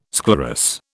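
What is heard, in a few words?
"sclerous", British English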